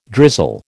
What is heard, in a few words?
"drizzle", American